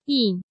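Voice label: "Yìn", Chinese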